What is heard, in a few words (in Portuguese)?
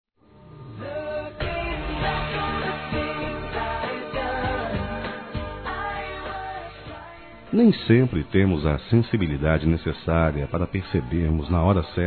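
romantico suave, Telemensagens, Românticas masculino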